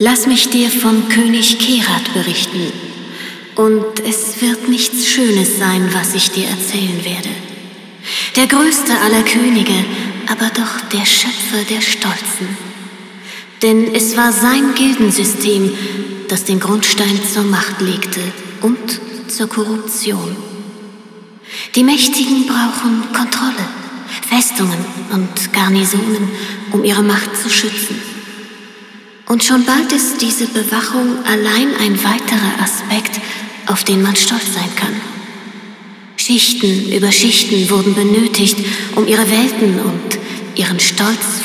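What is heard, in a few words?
Speech, German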